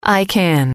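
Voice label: "I can", English, female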